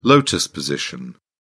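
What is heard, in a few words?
"lotus position", British English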